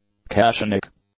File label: "cationic", English; adjectives